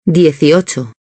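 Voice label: "dieciocho", Spanish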